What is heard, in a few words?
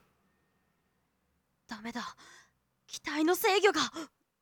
damege, boy pilot